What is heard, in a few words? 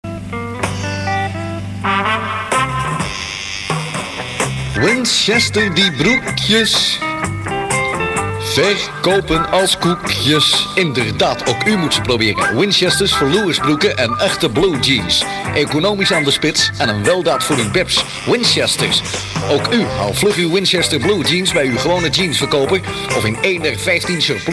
commercial